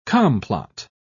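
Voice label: "complot", British English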